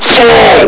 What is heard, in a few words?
"fall!", hgrunt